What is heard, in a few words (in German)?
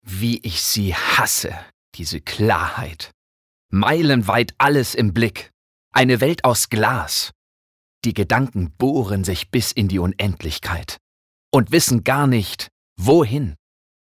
Schauspiel, Aufgeregt